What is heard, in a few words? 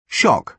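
"shock", British English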